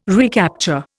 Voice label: "recapture", Indian

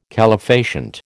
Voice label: "calefacient", American

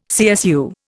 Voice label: "CSU", Indian

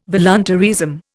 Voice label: "voluntaryism", Indian

